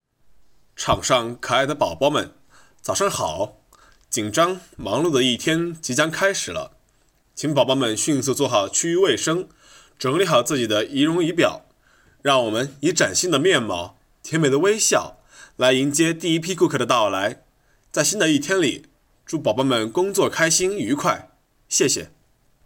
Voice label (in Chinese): 儿童服饰商场提示音